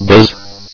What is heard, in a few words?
bzzz, festivox male